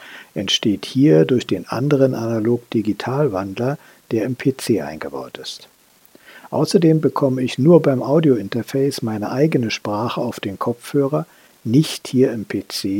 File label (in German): Kabel Boom Mikrofon direkt am PC